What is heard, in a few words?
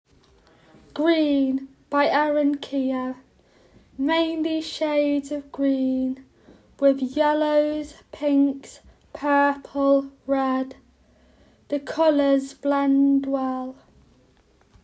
audio description; Green